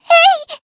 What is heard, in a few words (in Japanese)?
Voice attack jp; yuna